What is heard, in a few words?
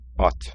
Horse in Turkish